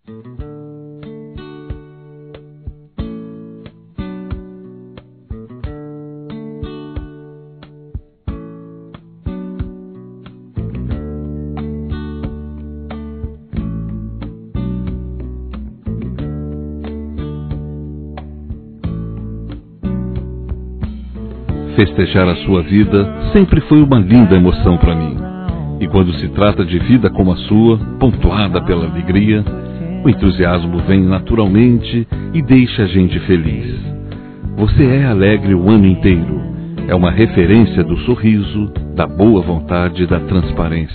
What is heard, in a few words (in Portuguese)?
Aniv. de Comadre ou Compadre M